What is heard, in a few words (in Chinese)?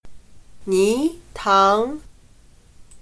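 "泥塘", Chinese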